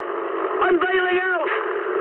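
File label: English bailout mood high; War Thunder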